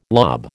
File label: "lob", American